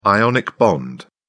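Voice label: "ionic bond", English, British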